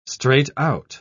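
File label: "straight-out", British English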